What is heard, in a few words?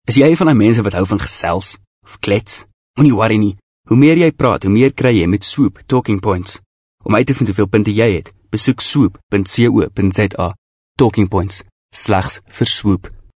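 AF Narrative, Afrikaans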